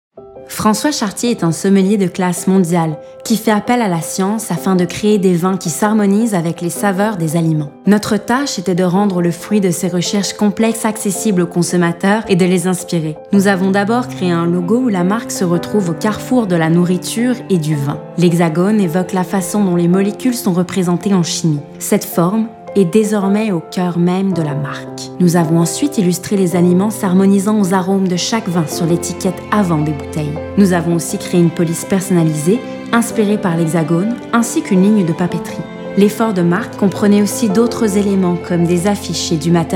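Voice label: pub french; SAQ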